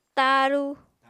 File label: "tañru", Apatani